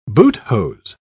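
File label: "boothose", British English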